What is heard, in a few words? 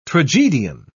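"tragedian", British English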